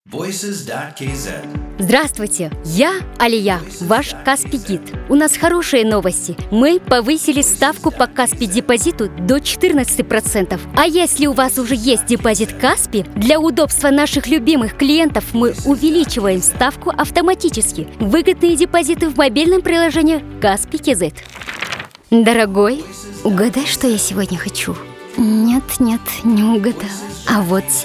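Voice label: Russian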